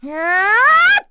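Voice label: Vox Casting, Korean; Chrisha; kingsraid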